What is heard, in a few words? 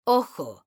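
"ojo", Spanish, female; mekanta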